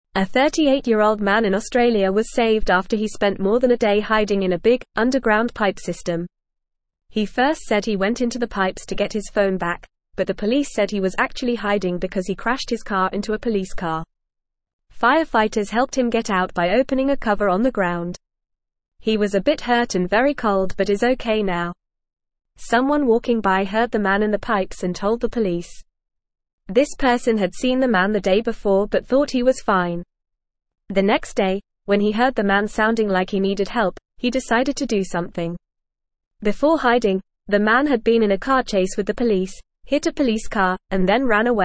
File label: English Newsroom Lower Intermediate FAST Reading; Man stuck in pipe after hitting police car